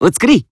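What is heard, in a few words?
Voice Emotion Greet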